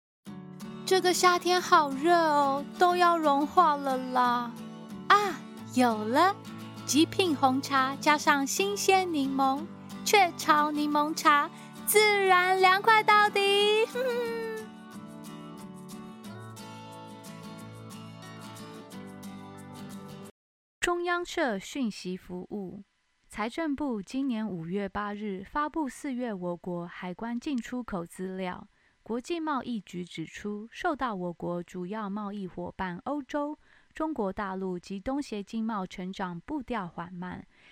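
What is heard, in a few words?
Chinese Taiwanese; GoLocalise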